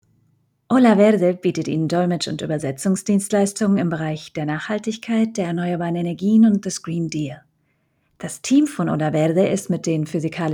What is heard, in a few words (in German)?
DE